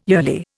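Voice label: "yearly", Indian English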